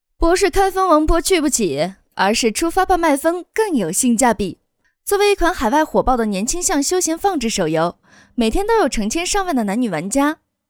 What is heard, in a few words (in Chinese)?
女 信息流 御姐音 组队体验